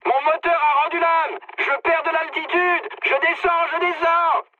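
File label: damaged engine, mood high, French; War Thunder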